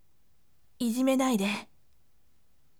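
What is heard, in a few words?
voice, firm; ijimenaide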